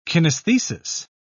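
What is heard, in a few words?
"kinesthesis", British English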